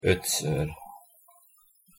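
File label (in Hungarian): "ötször", Hungarian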